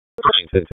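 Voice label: Police Southwest District Dispatch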